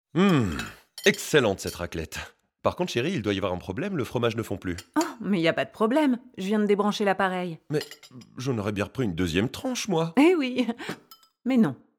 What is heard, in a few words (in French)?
dialogue, voix; Poweo; TV